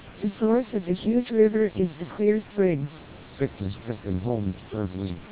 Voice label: twelp700 ae short snr10db, vocoders lowrate; Input speech (SNR=10dB); MELPe 1200 bps